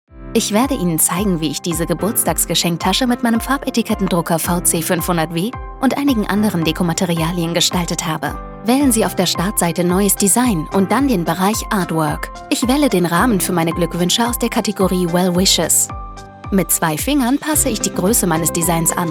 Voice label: anwendungsvideo; brother

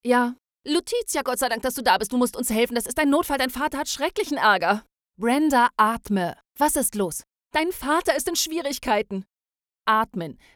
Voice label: dialog; lutetia

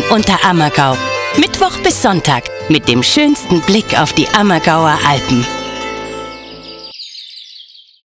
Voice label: Funkspot bayerisch; hieronymus

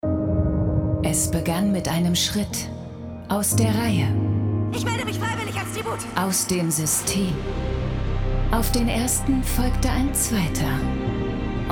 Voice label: trailer tv; tribute von panem

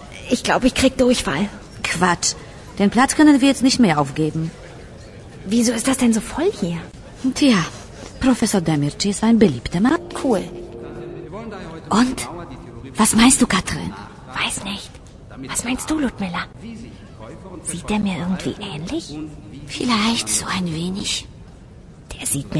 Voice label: deutsch telenowela

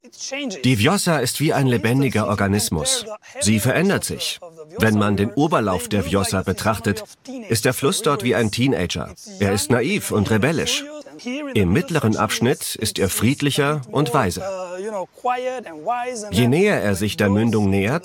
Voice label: doku; arte; Muttersprache Deutsch

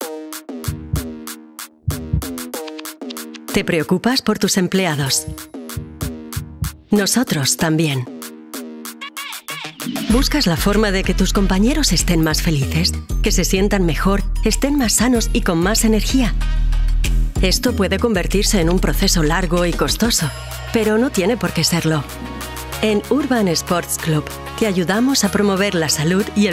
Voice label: imagefilm

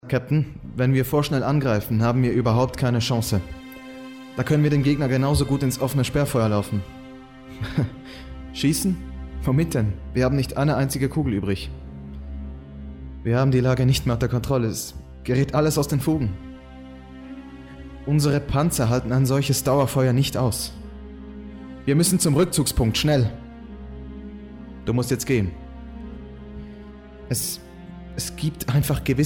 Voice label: sprechsamples ruhig

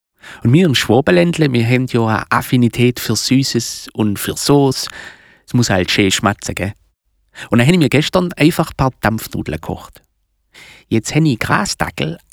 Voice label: deutsche dialekte

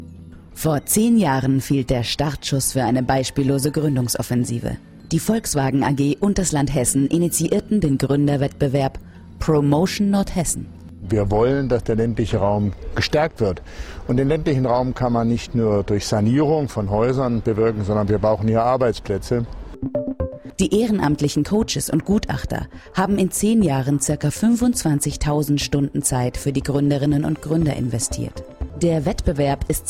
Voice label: doku imagefilm

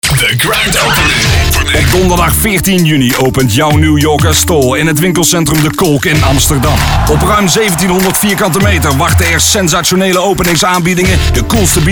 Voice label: werbung; nystore

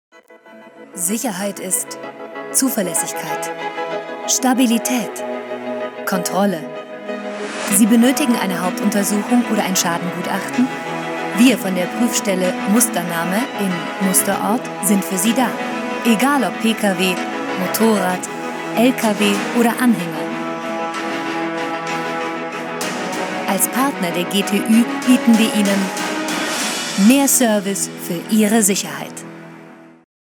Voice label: kinospot; gtue

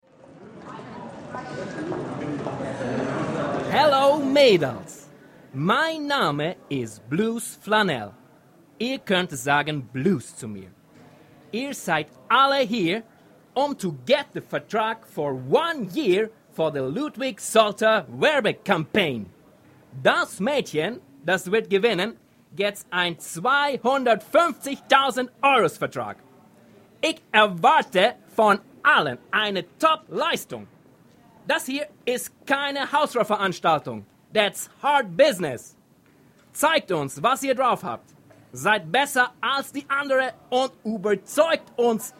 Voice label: hoerspiel shooting

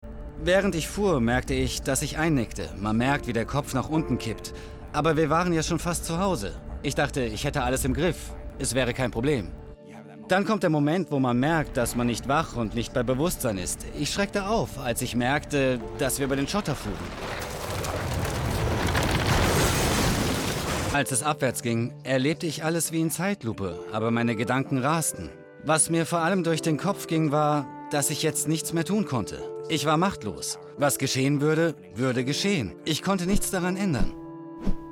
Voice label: vo doku safety

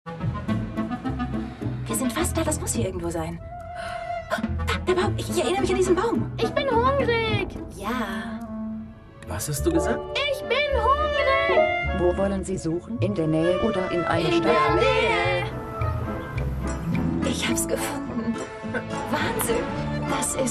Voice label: TV-Spot; der neue ford focus

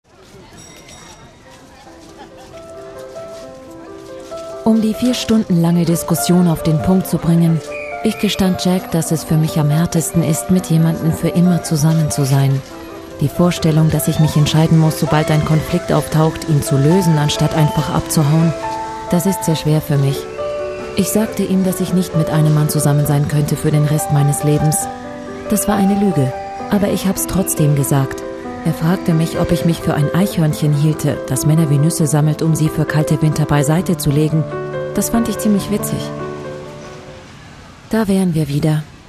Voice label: filmmonolog

